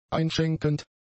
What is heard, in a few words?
"einschenkend", German; adjectives